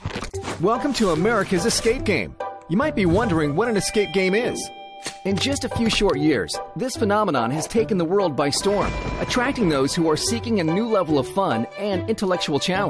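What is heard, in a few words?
Narration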